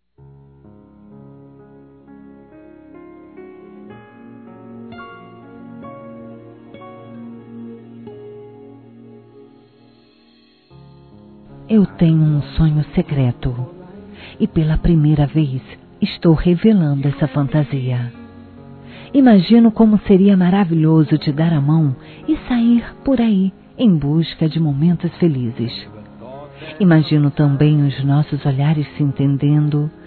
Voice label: Conquista Romantica Suave Feminino; Telemensagens; Conquistas Cantadas paqueras; Telemensagens 2017

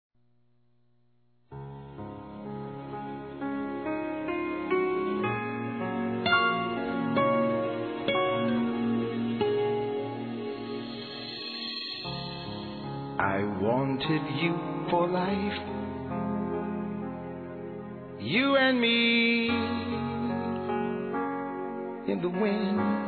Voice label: Distante Masculino, Telemensagens

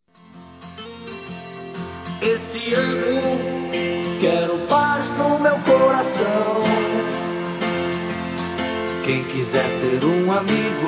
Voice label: Ano Novo Cliente Feminino; Telemensagens; Natal e Ano novo

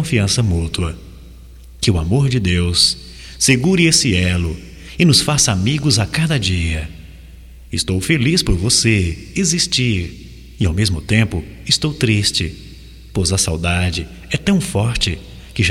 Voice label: AMIZADE GERAL M, Só Voz, masc; Telemensagens; Telemensagens 2017

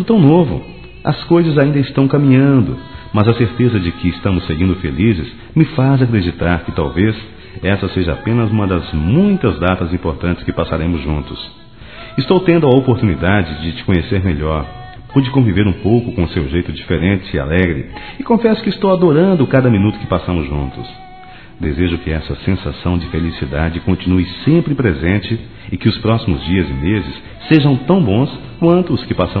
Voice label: NATAL ROMANTICO, masc

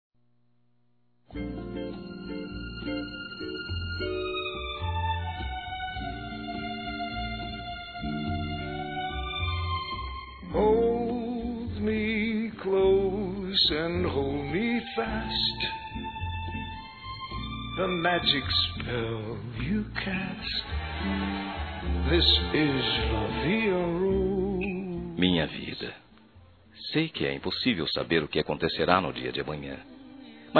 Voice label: Esposa Masculino, Telemensagens Românticas

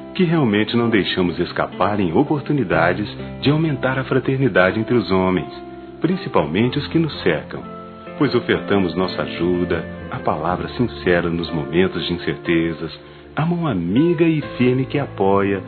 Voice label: NATAL P AMIGO (A) M; Telemensagens; Natal e Ano novo

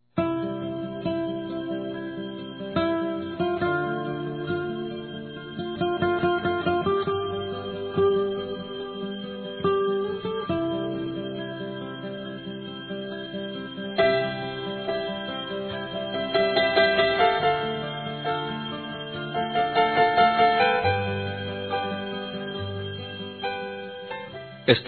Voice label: Otimismo Masculino, Telemensagens; Telemensagens 2017

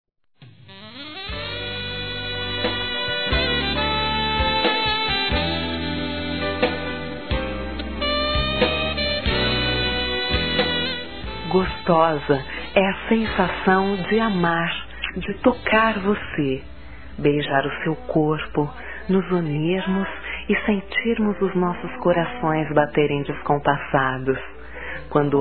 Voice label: Erótica Leve F; Telemensagens; Sensuais; fem

